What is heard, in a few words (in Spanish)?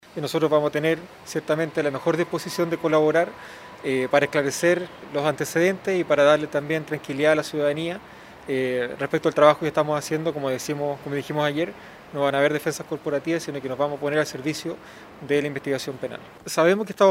CUÑA; BASSA